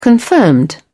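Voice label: "confirmed", British English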